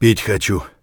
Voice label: dehydrated; Escape from Tarkov

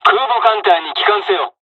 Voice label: dialogs japanese; evac; War Thunder

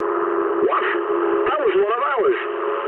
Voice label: eng player killed ally mood low, speech eng; War Thunder

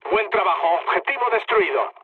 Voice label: bomb success, mood low, speech; War Thunder

